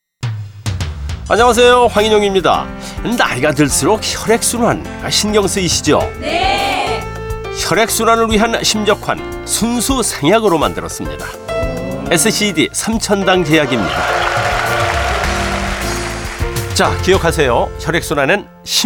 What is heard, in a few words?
radio; advertise; pills